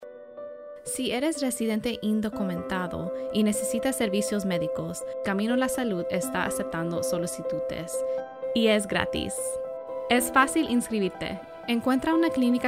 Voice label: Announcer